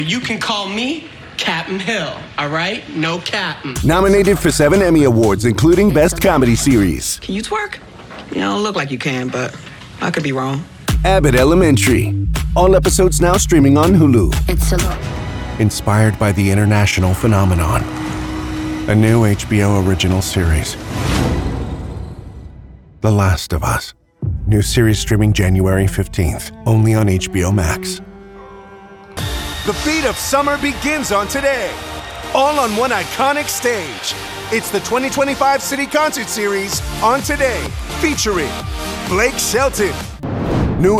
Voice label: promo men

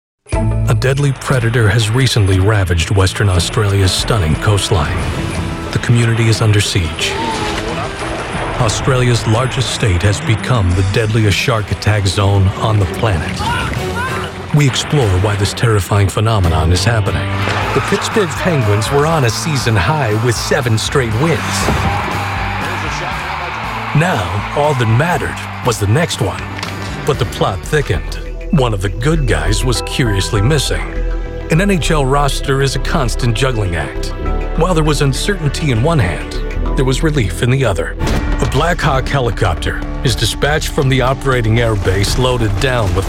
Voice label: narration

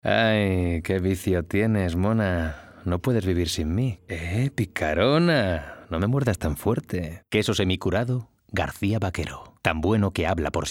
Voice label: languages men character

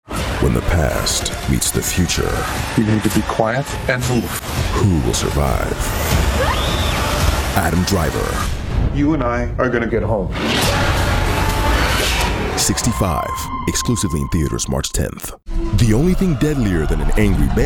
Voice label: trailers men

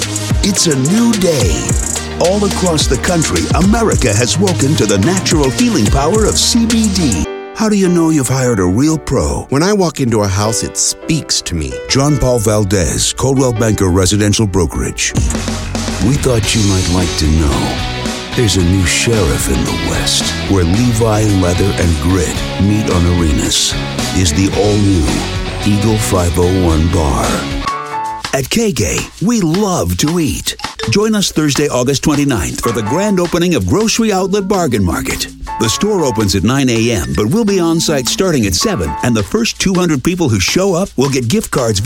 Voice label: commercial men

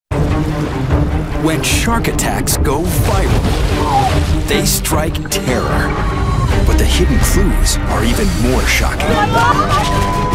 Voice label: narration men

